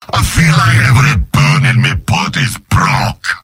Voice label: jeers; demoman; mvm